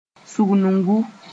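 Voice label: "sũgnugu", Mooré; coordination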